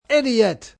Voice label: Idiot, male; Talking

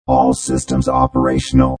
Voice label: Robot Voice All Systems Operational; Robot Voice 2

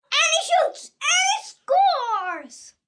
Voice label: He Shoots He Scores, Cheer, 1 boy, 9 yrs, Yelling; VoiceBox

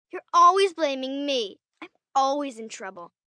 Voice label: Always Blaming Me, 1 girl, 10 yrs; Talking Sound Effects

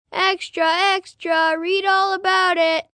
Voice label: "Extra Extra Read", 1 boy, 7 yrs; Talking; VoiceBox

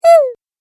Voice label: Cartoon Game Voice "Ooooh"

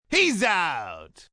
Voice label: Hes Out, announcer, male, baseball; Sports, umpire, coach; VoiceBox

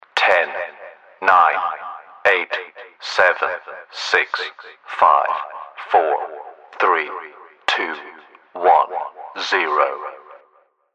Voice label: Army Facility Male Countdown; Army Facility - Male Countdown V2

